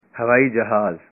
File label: "airplane", punjabi